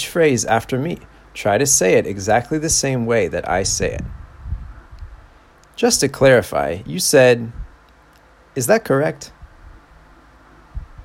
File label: Phone Shadowing